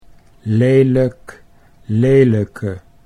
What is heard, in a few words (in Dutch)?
"lelijk lelijke", Dutch